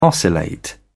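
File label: "oscillate", British English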